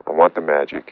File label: LoFi Phrases, LoFi Vocals; Vengeance Samples